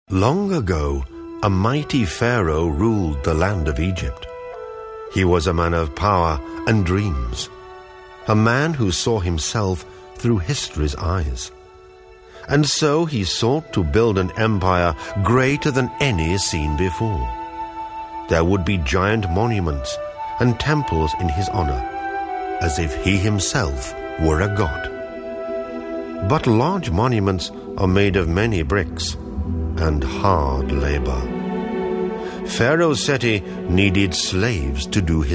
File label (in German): erzaehlung